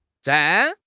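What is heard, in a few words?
"zhan4", Chinese; HSK3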